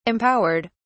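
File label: "empowered", British English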